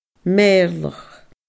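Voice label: "meirleach", Scottish Gaelic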